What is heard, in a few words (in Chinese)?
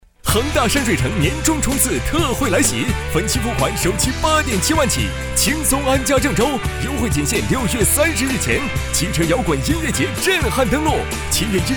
广告 年轻活力; 汽车音乐节